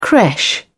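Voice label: "creche", British English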